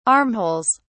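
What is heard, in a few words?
"armholes", British English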